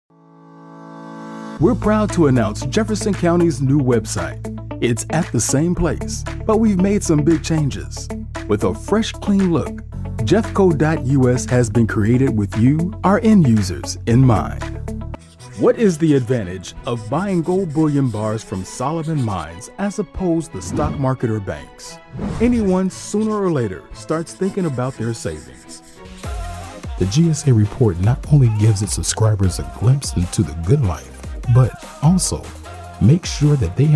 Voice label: Explainer

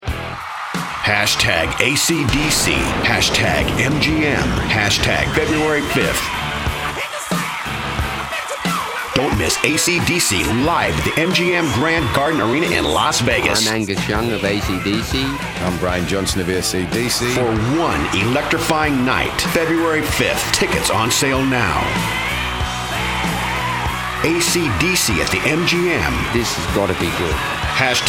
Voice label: Concert Promo

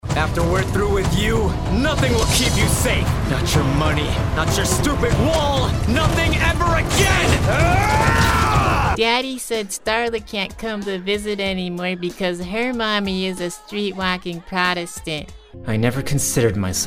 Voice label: Character

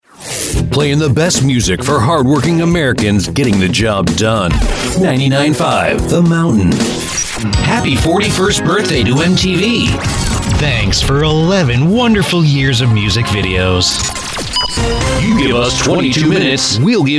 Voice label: Imaging

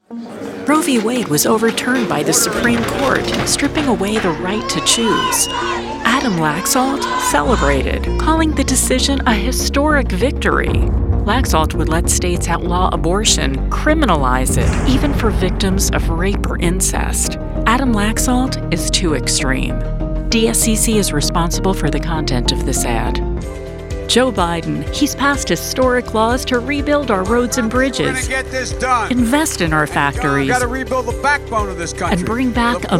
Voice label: Democrat Union English

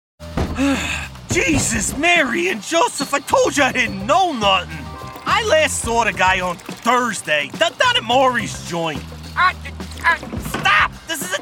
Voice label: Animation

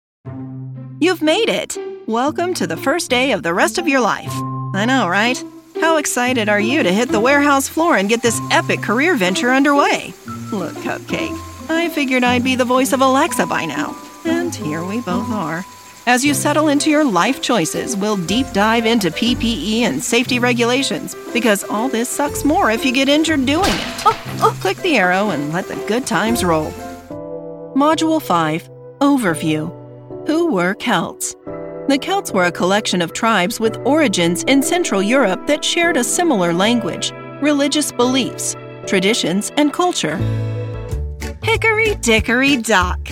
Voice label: E Learning

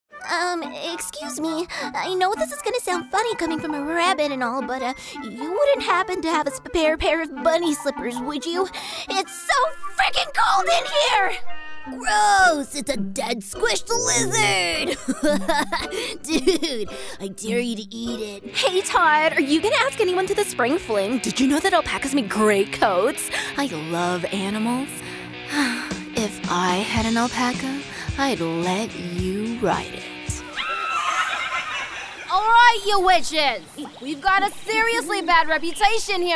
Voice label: animation

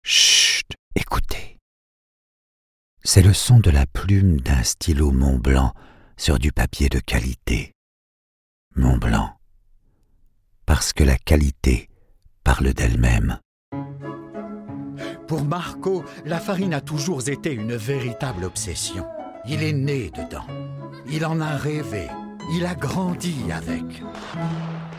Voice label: Commercial French

